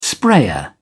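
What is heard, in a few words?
"sprayer", English, British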